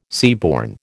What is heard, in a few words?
"seaborne", American